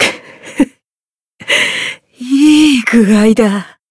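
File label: Voice win barely, Japanese; minalca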